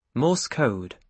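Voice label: "morse code", British English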